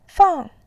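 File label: "fang", Mandarin Chinese, fourth tone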